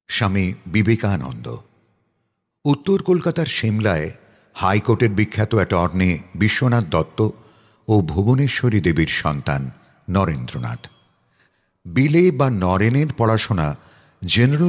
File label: bengali vo